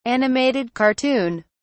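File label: "animated cartoon", British English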